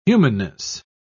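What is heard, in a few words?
"humanness", English, uk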